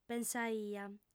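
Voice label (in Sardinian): "pensaia", female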